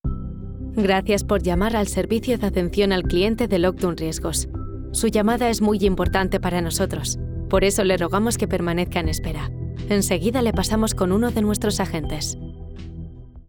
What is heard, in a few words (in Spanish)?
Castellano